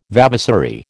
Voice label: "vavasory", American